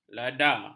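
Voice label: "lada", Malay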